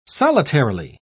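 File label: "solitarily", English, British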